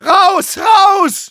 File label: battlecry, de; Medic